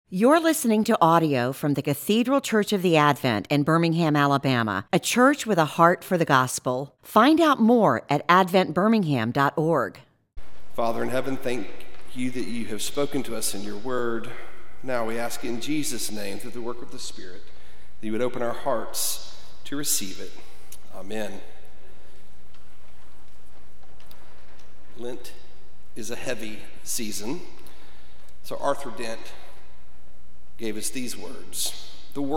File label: Leten Preaching